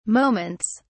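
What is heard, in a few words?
"moments", British English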